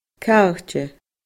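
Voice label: "caite", Scottish Gaelic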